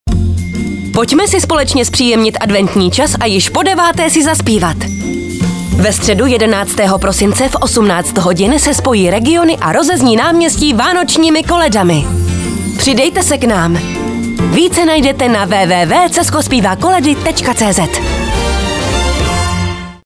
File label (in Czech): Koledy radio